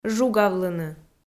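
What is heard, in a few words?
"жугавлыны", Komi-Zyrian, female; audio dict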